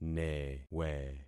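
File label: "newe", concatenated syllables; Affixing experiment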